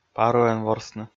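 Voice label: "паруӧн ворсны", Komi, male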